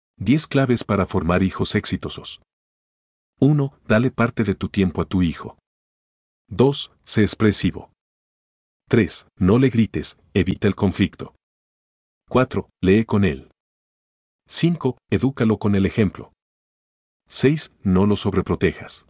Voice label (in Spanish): Audio Libro Resumen; 10 claves para formar hijos triunfadores